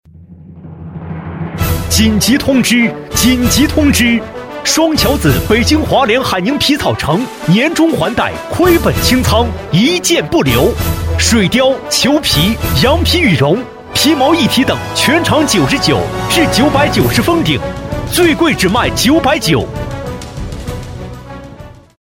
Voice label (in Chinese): 皮草城促销; 北京海宁皮草城